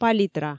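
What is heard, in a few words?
"палитра", Komi, female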